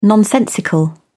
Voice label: "nonsensical", en uk

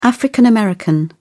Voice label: "african american", uk

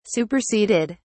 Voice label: "superseded", en uk

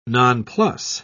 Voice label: "nonplus", en uk

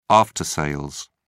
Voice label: "after sales", uk

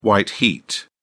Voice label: "white heat", uk

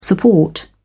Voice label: "support", en uk

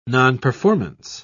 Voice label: "nonperformance", en uk

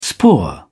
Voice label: spore, uk

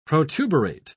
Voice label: protuberate, uk

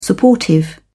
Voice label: "supportive", en uk

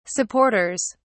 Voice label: "supporters", en uk

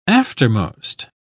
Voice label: "aftermost", uk

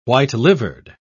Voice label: "white-livered", uk

